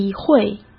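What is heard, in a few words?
"体会", Mandarin Chinese; hsk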